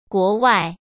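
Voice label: "国外", Mandarin Chinese; chinese flashcards; hsk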